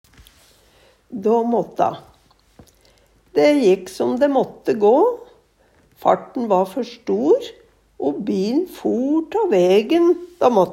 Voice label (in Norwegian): "da mata", Numedalsmål